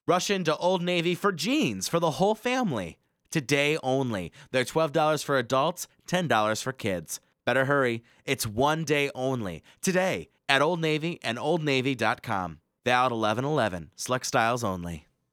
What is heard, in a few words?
voiceover raw